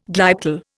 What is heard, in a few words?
"glyptal", Indian